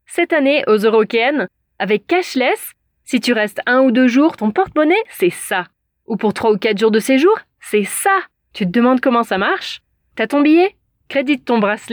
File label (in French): French General